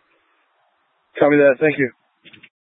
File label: Light Rail Emergency, scanner